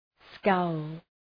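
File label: "scowl" English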